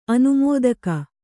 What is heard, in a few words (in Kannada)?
"ಅನುಮೋದಕಿ", Kannada